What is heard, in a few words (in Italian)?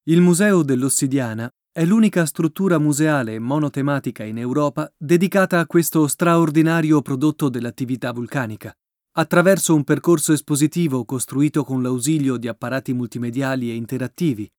Audioguida, italian, male; audioguide